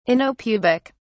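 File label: "anopubic", British English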